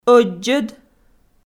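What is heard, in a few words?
"ӧддзӧд", Komi, female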